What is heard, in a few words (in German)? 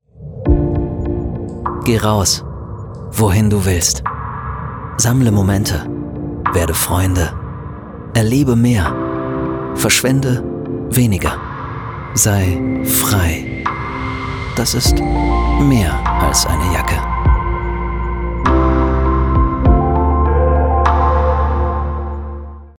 Web-Spot; Northface